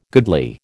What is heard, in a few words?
"goodly", American